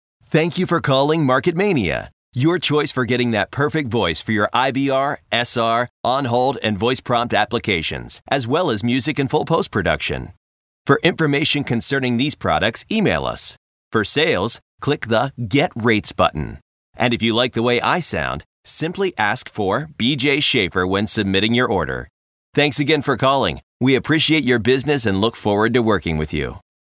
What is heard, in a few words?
Voice Sample, male voice talent